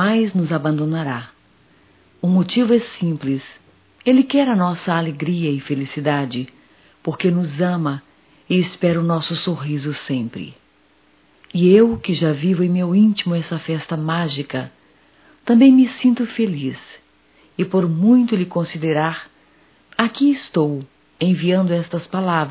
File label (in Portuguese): Natal neutro - F; Natal e ano novo; fem; Telemensagens 2017